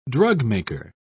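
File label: "drugmaker", British English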